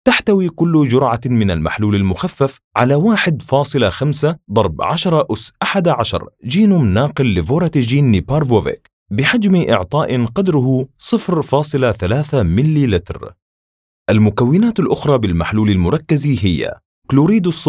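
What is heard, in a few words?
Medical Narration E-Learning Standard Arabic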